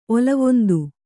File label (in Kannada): "ಒಲವೊಂದು", Kannada